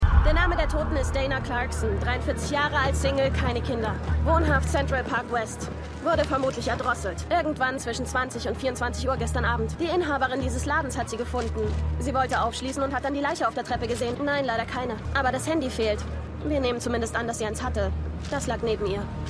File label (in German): Polizistin